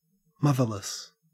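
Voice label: "motherless", Australian English; pronunciations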